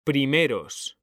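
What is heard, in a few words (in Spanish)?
"PRIMEROS", Spanish; mekanta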